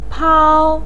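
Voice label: "pao", Mandarin pinyin, first tone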